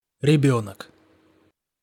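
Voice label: "rebenok", Russian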